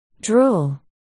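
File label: "drool", spoken word, articulation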